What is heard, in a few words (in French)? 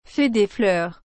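"fée des fleurs", French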